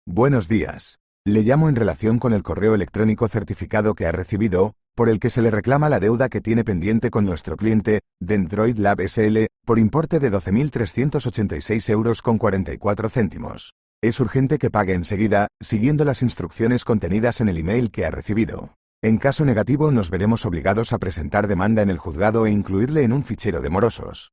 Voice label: audio call